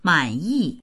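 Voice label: "man3yi4", Mandarin Chinese; 满意; 満足だ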